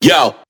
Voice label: "yo", group of men

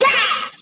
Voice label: "yeah" cartoon, voice

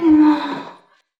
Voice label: "ooh" female; ooh ahh

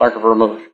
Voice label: "thank you very much", AS ELVIS

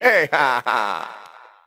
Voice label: hey ha ha

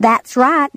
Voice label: "that's right", female; Voice

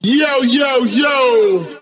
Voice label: "yo yo yo yo yo", Voice

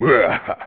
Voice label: wah ha evil; ooh ahh

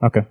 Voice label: "okay", expletives

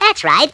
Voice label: that's right cartoon

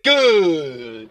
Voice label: "good", expletives

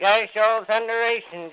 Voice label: gosh all dunderation, gibbrish; Voice

